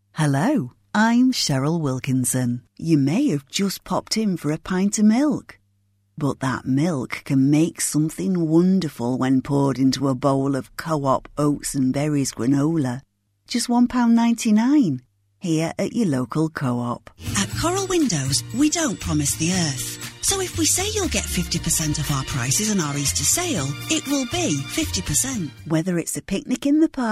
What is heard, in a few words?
northern accents